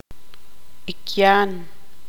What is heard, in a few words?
tzutujil